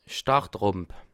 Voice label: "start", alsace

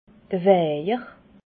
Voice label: "waiher", alsace

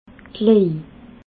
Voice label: "laj", alsace

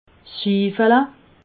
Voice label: "schiffele", alsace

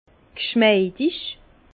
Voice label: "schmeidig", Bas Rhin; vin; souple

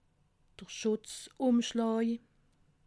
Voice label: "schutzumschlaa", alsace

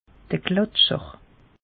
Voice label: "glatscher", alsace; meteo

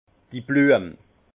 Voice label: "blueme", Alsace; vin; fleur

